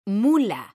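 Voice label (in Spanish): "mula" Spanish, female; mekanta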